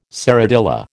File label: "serradilla", American